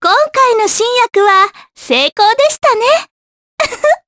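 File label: Victory, Japanese; Mediana; kingsraid; jp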